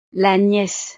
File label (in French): "la niece", frances; familia